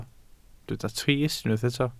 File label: "trist", Welsh